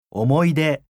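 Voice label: "omoide", Japanese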